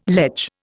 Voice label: "ledge", Indian